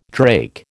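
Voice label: "drake", American English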